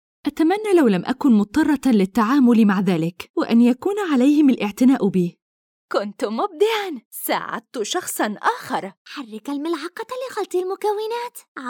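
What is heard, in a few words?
Arabic Saudi Arabia, female, voice over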